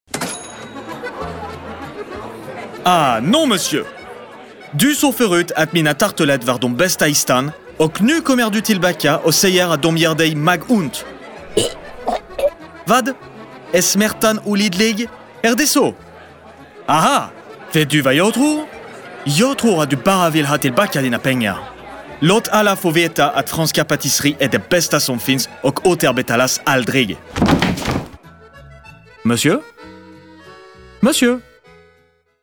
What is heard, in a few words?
swedish animation